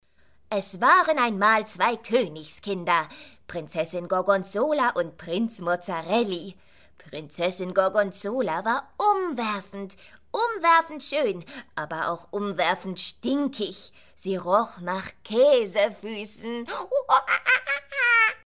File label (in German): Werbung Comic; Eucerin